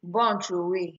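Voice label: "born throway", Nigerian Pidgin, female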